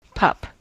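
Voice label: "pup", US English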